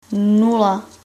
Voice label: zero, Czech

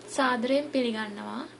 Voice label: welcome sinhala; සාදරයෙන් පිලිගන්නවා; (sadarayen piligannawa)

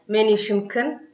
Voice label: name, tigrinya

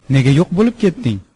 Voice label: long time no see uzbek

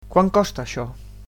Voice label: "how much", Catalan

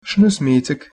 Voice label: name, arabic; شنو اسميتك؟; Arabic (Moroccan); (shno smītek?)

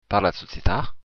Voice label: do you speak, occitan

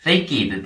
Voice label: how are you, luxembourgish

